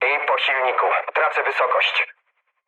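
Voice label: damaged engine, mood low, Polish speech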